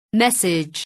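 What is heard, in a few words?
"message", English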